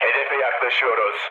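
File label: Turkish near waypoint, mood low, speech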